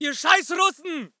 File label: voice ger infantry